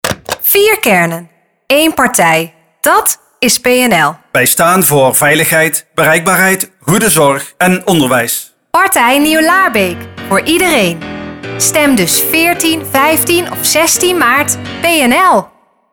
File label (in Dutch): spot verkiezingen; PNL